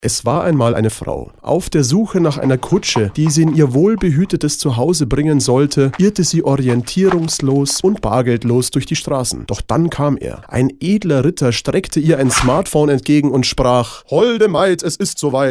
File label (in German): Werbung Sparkasse